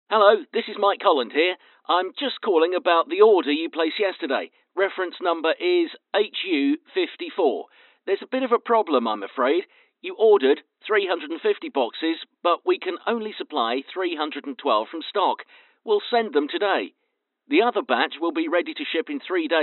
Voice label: Voicemail